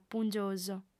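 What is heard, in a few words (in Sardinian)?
"pungiosu", female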